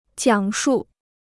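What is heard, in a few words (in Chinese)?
"讲述" Mandarin Chinese; jiǎng shù; to talk about; to narrate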